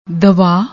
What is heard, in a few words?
"dava", Punjabi; ਦਵਾ